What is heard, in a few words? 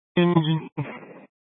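Incident Tac 1, Scanner audio recording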